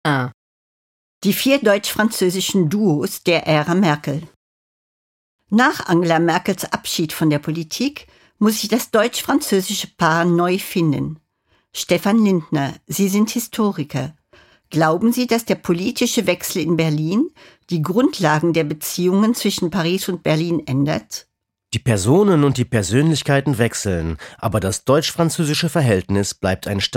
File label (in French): Allemand